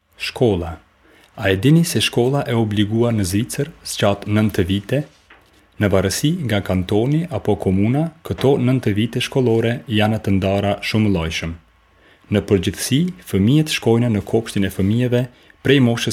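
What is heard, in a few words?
Albanian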